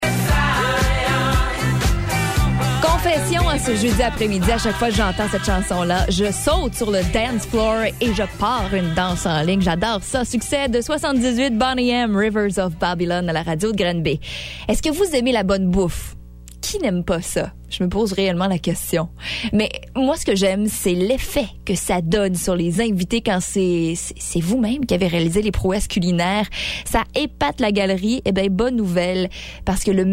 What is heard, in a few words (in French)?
voix femmes